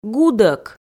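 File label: "гудӧк", Komi, female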